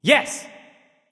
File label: Emote Yes